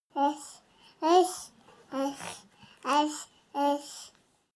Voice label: baby talk count